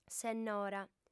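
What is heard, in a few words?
"sennora", Sardinian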